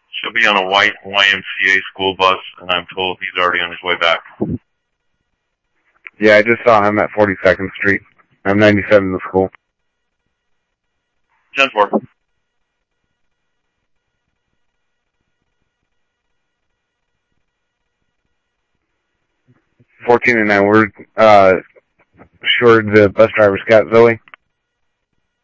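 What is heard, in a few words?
police department, scanner audio trunk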